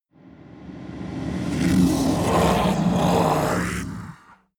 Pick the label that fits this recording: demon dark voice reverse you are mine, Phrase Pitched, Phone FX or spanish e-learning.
demon dark voice reverse you are mine